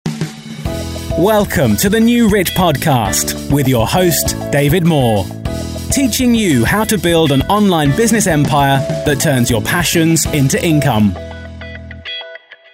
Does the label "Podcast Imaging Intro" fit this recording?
Yes